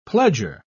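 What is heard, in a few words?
"pledger", British English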